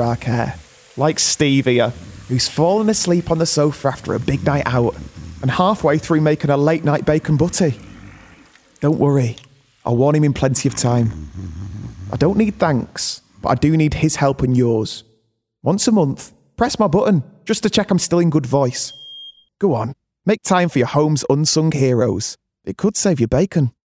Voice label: COMMERCIAL Smoke Alarms

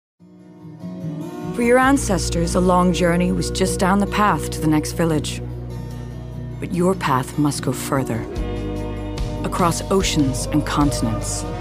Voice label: Commercial US Accent

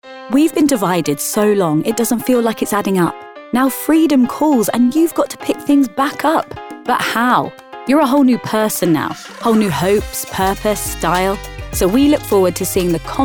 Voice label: Commercial

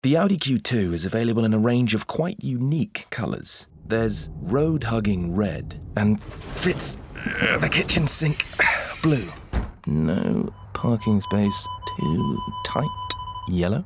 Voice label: radio; AUDI

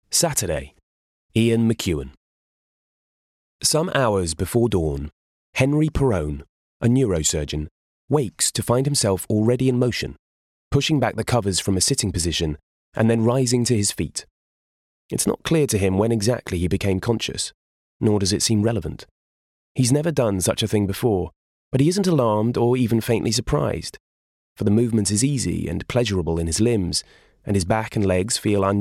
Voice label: audiobook

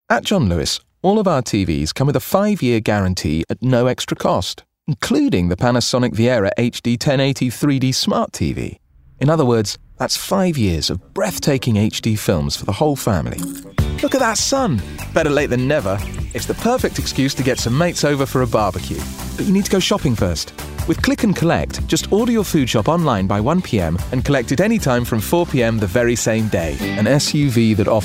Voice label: Commercial

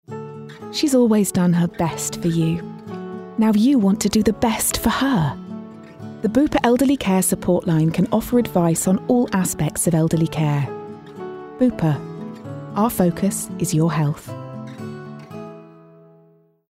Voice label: COMMERCIAL; Bupa

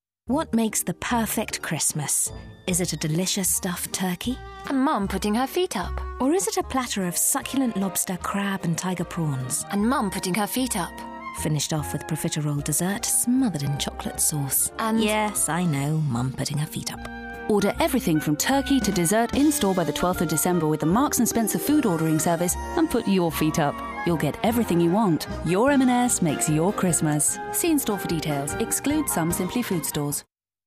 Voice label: commercial; M and S

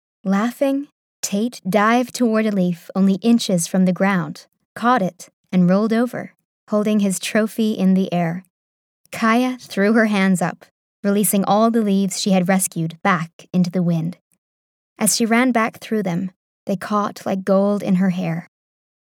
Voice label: USA Audiobook Sample

